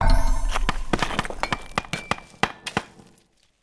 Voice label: Build Indian Fast Worker; Civ4 beyond sword

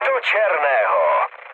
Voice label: bomb success, speech cze, mood low; War Thunder

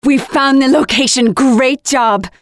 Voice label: Win match, Voiceovers; Project Argo

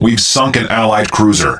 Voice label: Speech Destroy Ally Cruiser, EN; World Of Warships

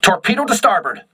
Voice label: voice message torpedo right, crew ships english

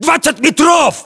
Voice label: threat distance, ru; localized english

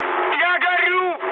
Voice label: on fire, mood med, speech ru; War Thunder

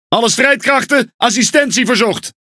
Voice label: Speech Quick Commands Sos, Languages NL; World Of Warships

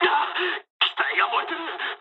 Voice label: on fire mood high, speech jp; War Thunder

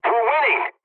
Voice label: pilot tickets lead start ally, english; War Thunder

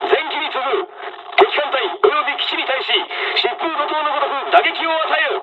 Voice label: japanese, dialogs japanese; War Thunder; first wave

